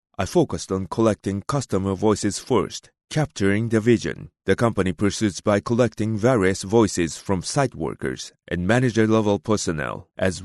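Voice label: Japanese, male, English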